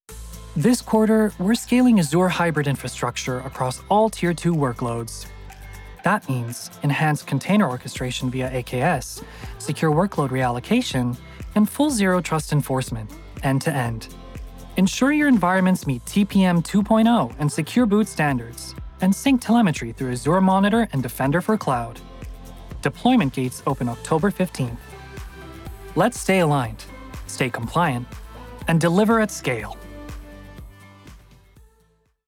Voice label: Corporate

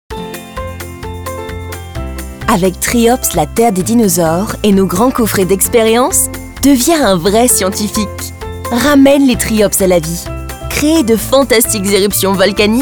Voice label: Commercial French English

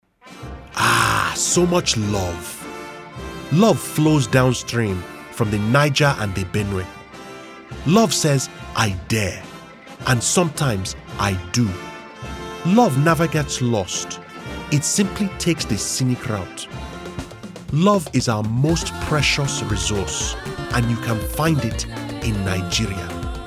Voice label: Nigerian Accent

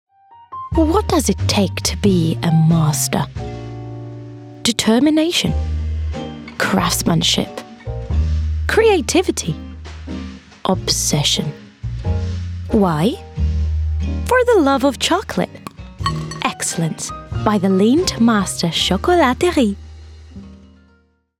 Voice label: Commercial

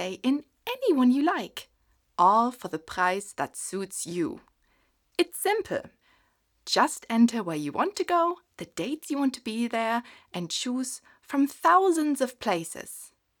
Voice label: Ad English with heightened German accent; AirBnB

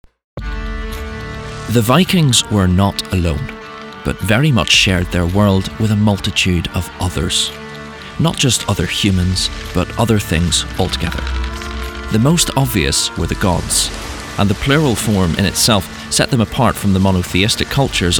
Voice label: Documentary

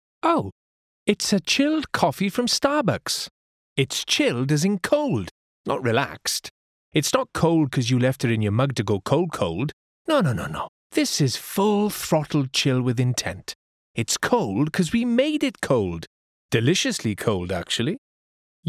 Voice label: Commercial; Starbucks

